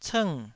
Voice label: "cng4", Taiwanese